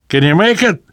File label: revive friend, namvet, survivor voice; Left 4 Dead 2; left4dead2 dlc3